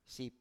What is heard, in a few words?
"sip", Biak